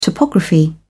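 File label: "topography", British English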